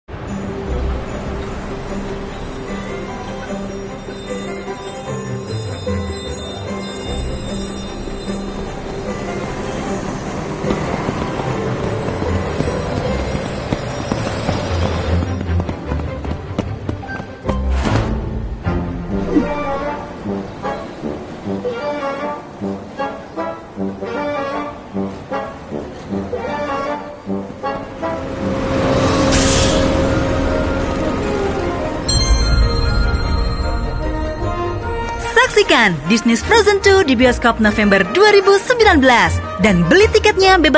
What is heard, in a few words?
Malay voice sample